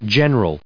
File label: "genera", us